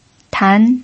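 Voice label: "tan", Mandarin Chinese pinyin, second tone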